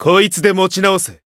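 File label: Voice heal, Japanese; majuou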